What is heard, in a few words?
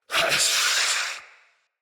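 See Player Knight Male, Battle; Barks; Mendicant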